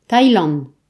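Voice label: "thailand", Breton; gcompris; geography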